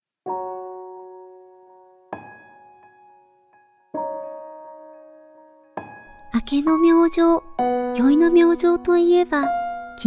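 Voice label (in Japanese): ボイスサンプル（ドキュメンタリー風）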